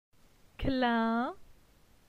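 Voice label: "Clin", French; phonetique; la syllabe in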